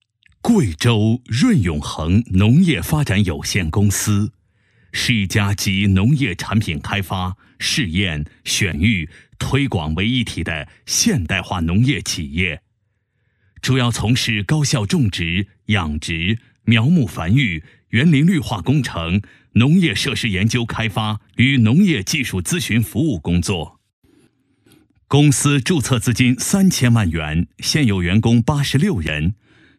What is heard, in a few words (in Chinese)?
企业专题 男 大气有力; 农业发展有限公司; 贵州润永恒农业发展有限公司